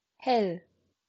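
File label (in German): "hell", German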